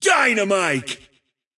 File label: intense